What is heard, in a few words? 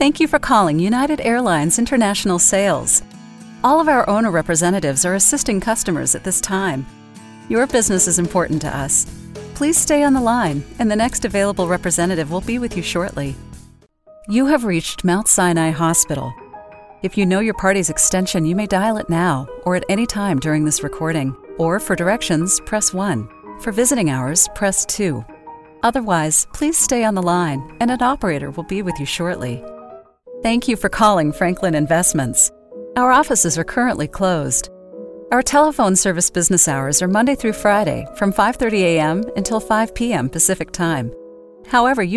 TELEPHONY